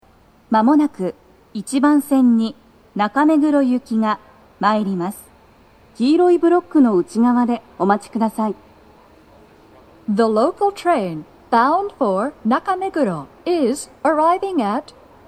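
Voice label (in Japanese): 茅場町駅, 1番線 接近放送, 女声, BOSE天井型での収録です; 放送は「中目黒行」です。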